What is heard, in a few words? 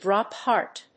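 "drop heart", English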